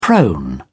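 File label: "prone", British English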